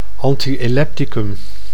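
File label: "anti-elepticum", Dutch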